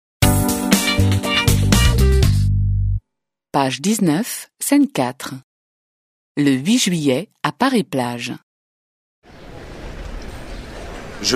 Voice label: French